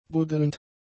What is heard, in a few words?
"buddelnd", German; adjectives